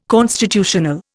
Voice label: "constitutional", Indian

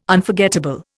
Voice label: "Unforgettable", Indian

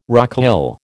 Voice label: "rocaille", American

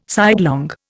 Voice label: "sidelong", Indian

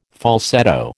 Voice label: "falsetto", American

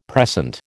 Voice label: "precent", American

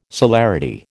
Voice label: "celerity", American

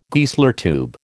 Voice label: "Geissler tube", American

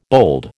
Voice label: "bold", American

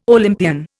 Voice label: "Olympian", Indian

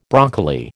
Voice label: "broccoli", American

